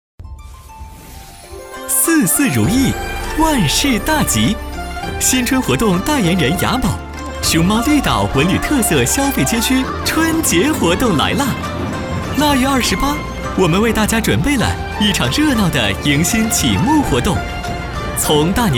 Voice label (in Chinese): 男 年轻 节日喜庆